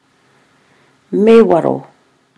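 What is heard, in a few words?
"mewaro", Māori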